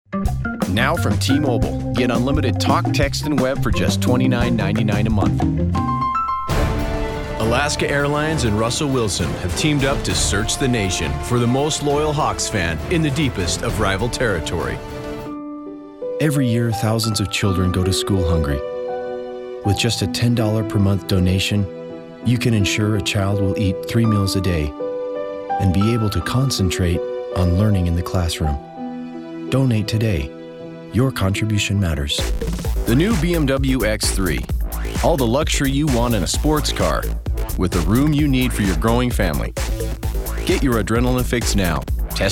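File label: commercial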